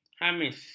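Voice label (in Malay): "hamis", Malay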